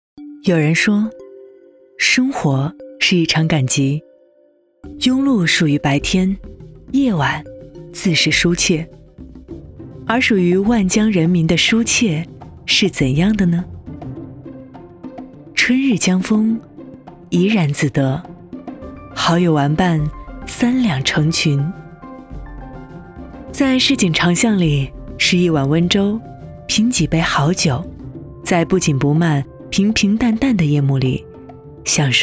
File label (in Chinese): 女, 纪录片; 舌尖